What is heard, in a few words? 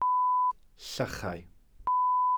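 "llychau", Welsh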